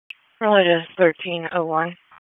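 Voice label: Raleigh Police Southeast District Dispatch; wake; p25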